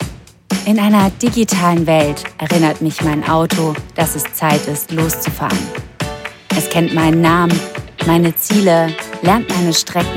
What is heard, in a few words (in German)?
Werbung Auto